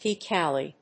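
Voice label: "piccalilli", English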